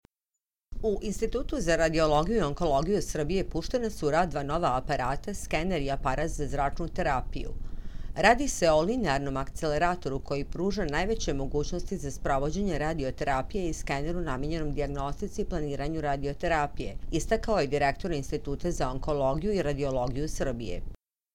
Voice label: Serbian news